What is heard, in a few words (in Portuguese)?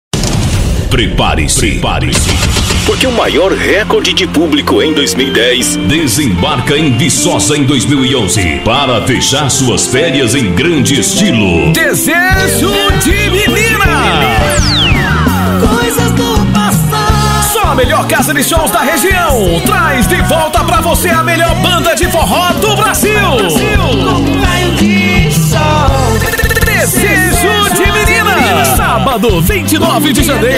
demo locutor pra festa